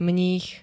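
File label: "mních", Slovak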